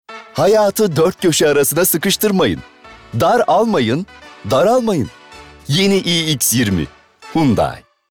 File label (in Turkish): Neseli